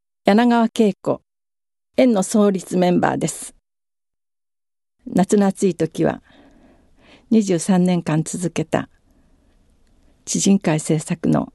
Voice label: free talk, female voice

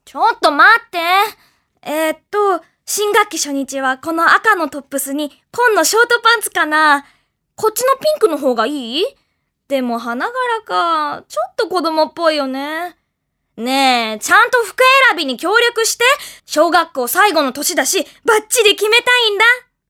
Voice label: female voice

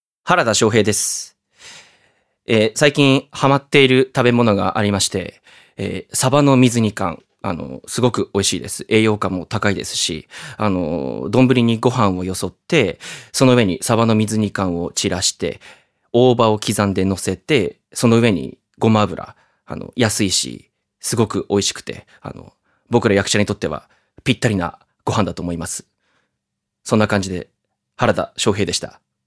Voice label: freetalk, male voice

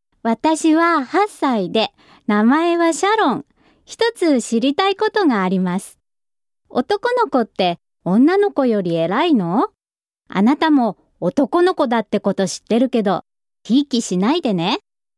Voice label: female voice

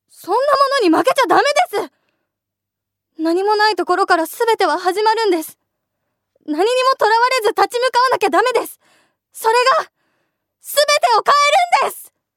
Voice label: female voice

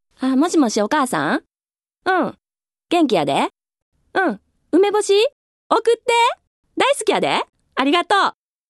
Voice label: kansaiben, female voice

